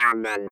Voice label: robot voice